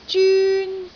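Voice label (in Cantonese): "zyun1", Cantonese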